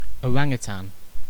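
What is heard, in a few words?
"orangutan", British English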